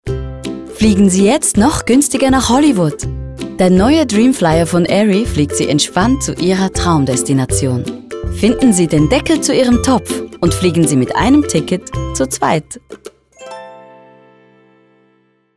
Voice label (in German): Werbung; Dreamflyer HD